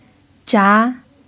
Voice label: "zha2", Chinese pinyin